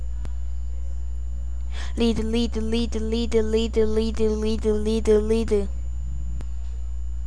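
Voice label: "lead", spoken word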